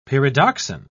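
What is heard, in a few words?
"pyridoxine", British English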